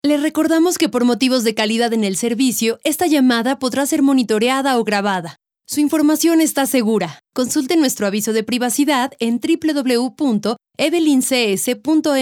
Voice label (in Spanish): aviso privacidad, conmutador; conmutadores; loma expertos